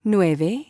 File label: Spanish Number